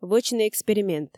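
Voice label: "вӧчны эксперимент", Komi, female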